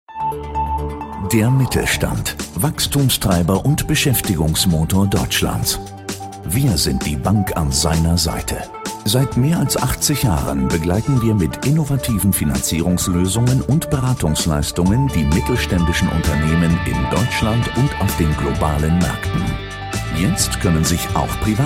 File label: Product Videos Entreprise, German voice-over, Professionnal and Rassuring; IKB